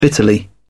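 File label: "bitterly", British English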